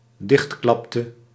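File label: "dichtklapte", Dutch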